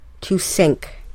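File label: "to sink", English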